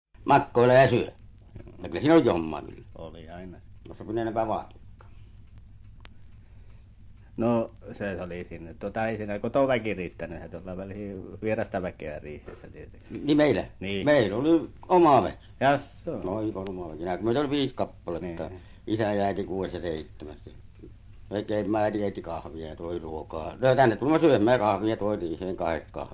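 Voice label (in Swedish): Övertorneå Norrbotten, Svenska sagor, dialekter; Folkminnen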